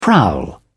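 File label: "prowl", British English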